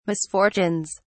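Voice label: "misfortunes", British English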